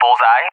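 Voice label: "bullseye", English; AWACS; DCS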